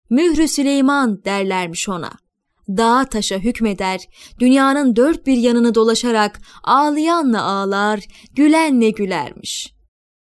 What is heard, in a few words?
female turkish voice over narrative